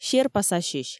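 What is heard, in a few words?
"серпасасьысь", Komi, female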